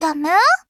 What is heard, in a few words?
Voice, JP; Sharkry